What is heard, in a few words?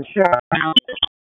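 Police Special Operations Tactical, P25; Spec Ops Tac 4; Raleigh; wake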